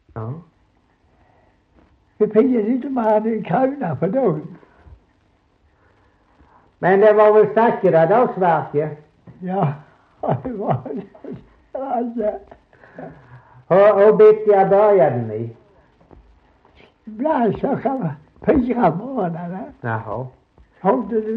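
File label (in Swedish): Slättåkra Halland, dialekter; Folkminnen; Svenska sagor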